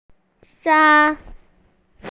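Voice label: "sai1", Mandarin Chinese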